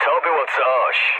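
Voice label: trick mood low, speech Polish; War Thunder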